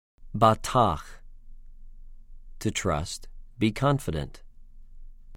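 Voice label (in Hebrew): "בָּטַח", Hebrew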